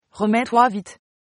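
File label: get well soon, French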